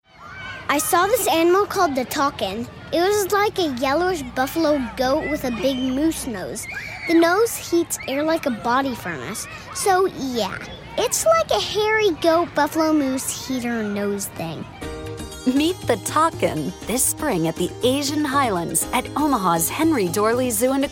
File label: vo zoo radio spot kid thoughts